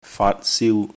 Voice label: "faat3 siu1", Cantonese